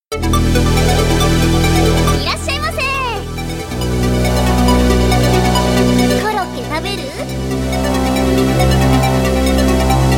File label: voice duck